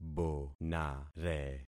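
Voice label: "bonare", concatenated syllables; Affixing experiment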